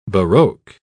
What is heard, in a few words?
"baroque", pronounce